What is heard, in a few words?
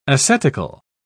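"ascetical", British English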